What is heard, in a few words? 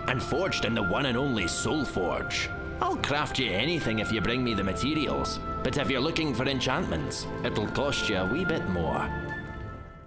oyun, game dubbing male voices international accent